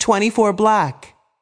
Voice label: voice Over; americanroulette3